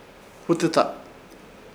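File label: "Hutɨta", Chamacoco word, papel o libro; Ishir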